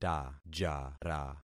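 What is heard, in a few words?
"tajara", concatenated syllables; Affixing experiment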